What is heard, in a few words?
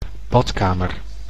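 "badkamer", Dutch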